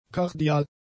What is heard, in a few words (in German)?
"kardial", German; adjectives